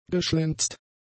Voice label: "geschlenzt", German; adjectives